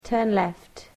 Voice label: "Turn left", english